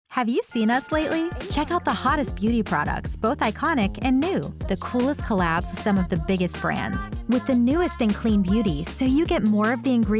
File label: Commercial